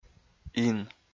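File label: "ин", Komi, male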